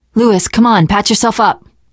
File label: player suggest health specific, survivor voice teen girl